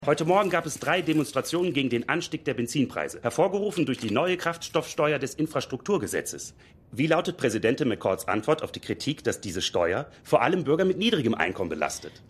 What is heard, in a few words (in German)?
Reporter; Reporter Steve; Madam Secretary